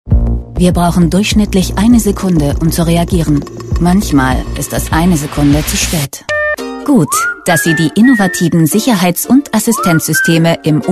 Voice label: germany voice over female commercial